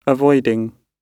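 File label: "avoiding", British English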